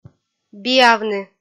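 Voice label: "биавны", Komi, female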